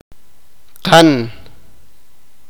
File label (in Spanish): "pie", sipakapense